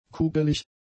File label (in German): "kugelig", German; adjectives